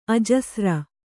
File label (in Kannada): "ಅಜಸ್ರ", Kannada